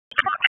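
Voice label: Police District Dispatch; wake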